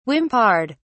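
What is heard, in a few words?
"whimpered", British English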